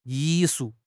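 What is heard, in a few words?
"yi4 shu4", Mandarin Chinese; HSK4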